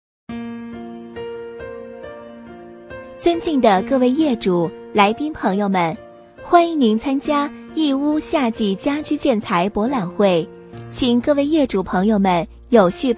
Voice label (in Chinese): 女 广告 商场温馨提醒